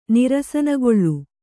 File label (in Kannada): "ನಿರಸನಗೊಳ್ಳು", Kannada